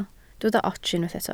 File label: "ots", Welsh